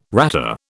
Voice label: "ratter", British

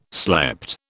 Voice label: "slept", British

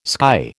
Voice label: "sky", American

